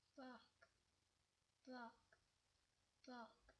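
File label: "bog", spoken word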